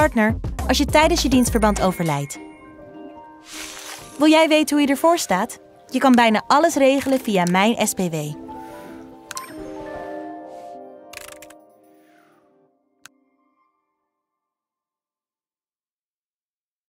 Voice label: NL Pensioen, voice-overs; SPW